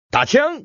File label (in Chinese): daqiang, man